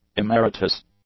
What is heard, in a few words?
"emeritus", English; adjectives